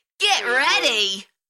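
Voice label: UK female, Enthusiastic, DJ vocals; Get ready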